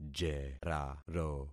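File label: "jeraro", concatenated syllables; Affixing experiment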